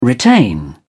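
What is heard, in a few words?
"retain", English, British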